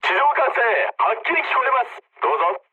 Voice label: jp chat, speech jp

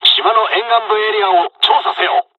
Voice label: dialogs japanese; War Thunder

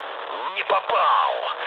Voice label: bomb failure, mood low, speech ru; War Thunder

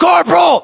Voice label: rank corporal, US; localized english; battlechatter

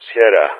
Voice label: "Sierra", player speech; Ka-50; Alphabet

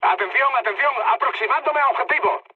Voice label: near waypoint, mood high, speech; War Thunder

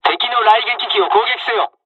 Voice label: dialogs japanese; War Thunder

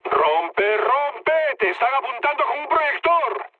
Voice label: searchlite, mood low, speech; War Thunder

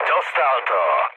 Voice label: aircraft destroyed, speech cze, mood low; War Thunder

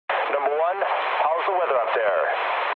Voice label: eng chat, speech eng

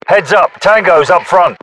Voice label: UK threat infantry generic, battlechatter

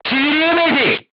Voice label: voice message armor not pierce, turkish

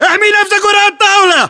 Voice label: order cover table, battle chatter, english

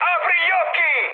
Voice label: player friendly fire mood high, speech it; War Thunder

